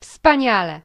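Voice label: awesome, Finnish; gcompris-data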